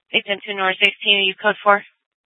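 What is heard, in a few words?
police dispatch, scanner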